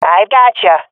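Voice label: covering friend, swat female; Counter Strike Model Pack; CS:GO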